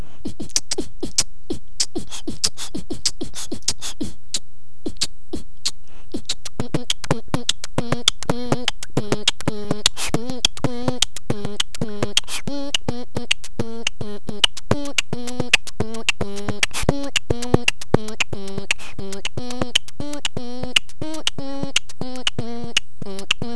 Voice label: funny

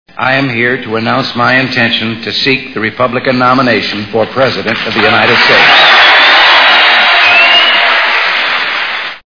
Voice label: reagan announcement; Ronald Regan

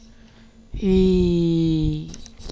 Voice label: Spanish I

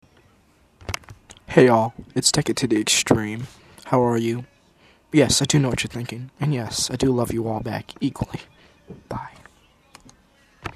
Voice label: techie voice

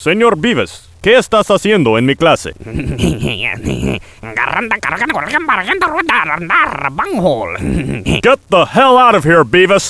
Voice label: spanish

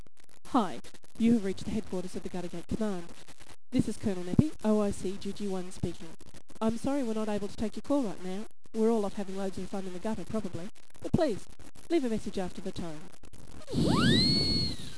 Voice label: answerphone

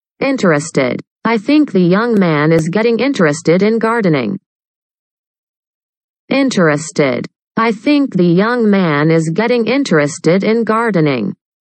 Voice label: interested; Spelling Bee

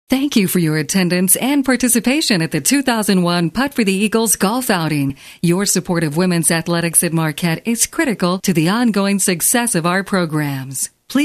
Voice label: on hold

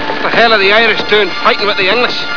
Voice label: irish with english; william wallace

